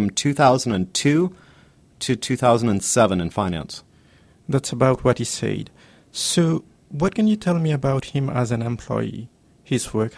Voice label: dialogue, reference call